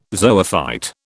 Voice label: "zoophyte", British